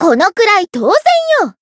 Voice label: growth, jp